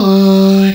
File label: "oi", vocal